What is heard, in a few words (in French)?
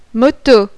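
"MOTO", French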